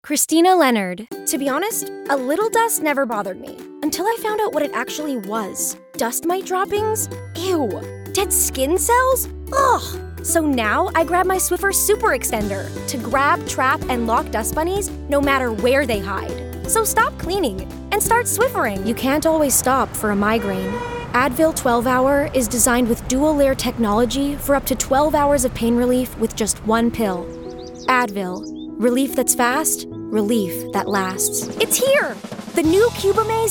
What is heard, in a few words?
Commercial